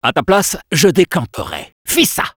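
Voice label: Spy taunts, French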